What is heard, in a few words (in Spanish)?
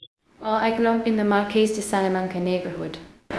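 Voice Acting, Spanish, female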